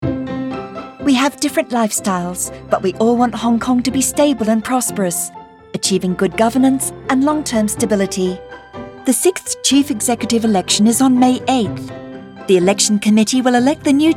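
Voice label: Radio, English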